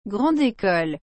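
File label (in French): "grande ecole", French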